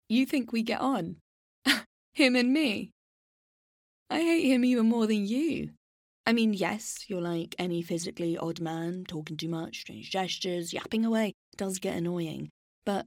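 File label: English UK Narrative; GoLocalise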